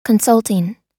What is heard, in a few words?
consulting, us